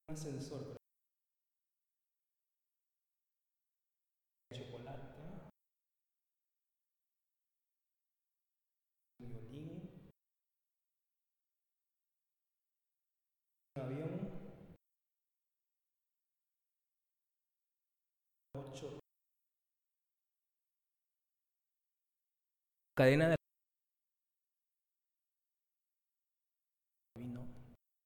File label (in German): Zusammengefügte Wörter Langsam Spanisch